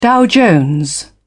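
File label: "dow jones", British English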